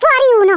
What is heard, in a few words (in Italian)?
"First blood", Italian, Speech; Worms Armageddon